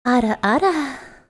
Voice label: cute ara ara